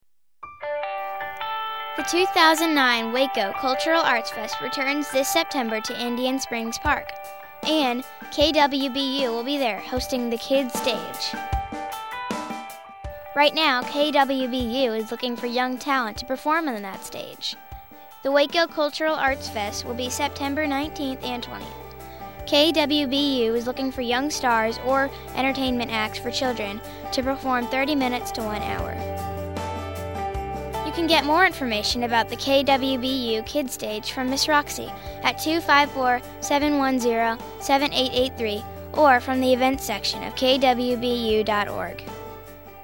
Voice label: promo; KWBU